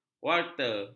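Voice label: "warta", Malay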